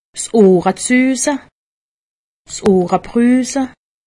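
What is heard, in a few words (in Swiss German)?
"ohresusse", Haut Rhin; medecine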